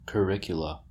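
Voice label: "curricula", US English; pronunciations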